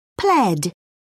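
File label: "pled", British English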